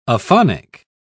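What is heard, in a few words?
"aphonic", British English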